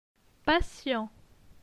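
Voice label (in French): "Patient", French; phonetique; les consonnes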